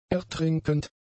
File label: "ertrinkend", German; adjectives